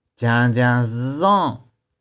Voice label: "jiang1jiang1zy1zong1", 玉環

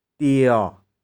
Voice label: "tie1ghau0", 玉環

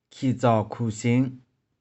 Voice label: "khi2tsau2khu2shing5", 玉環

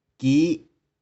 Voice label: "ki5", 玉環

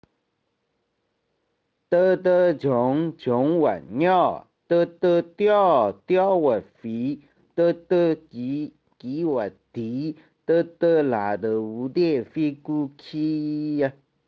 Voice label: "鬥鬥蟲", 玉環

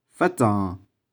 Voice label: "feh4tsong1", 玉環

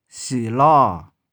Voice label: "shi2lau6", 玉環

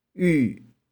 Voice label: "iu2", 玉環

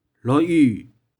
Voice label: "loh4iu2", 玉環

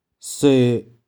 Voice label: "soe1", 玉環

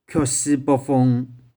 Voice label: "khioh4shi1poh4fung1", 玉環

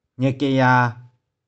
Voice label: "nih4kih4ya3", 玉環

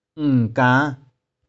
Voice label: "ng2kang5", 玉環

